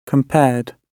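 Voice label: "compared", British English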